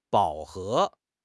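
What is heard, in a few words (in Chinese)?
"饱和", Chinese; HSK6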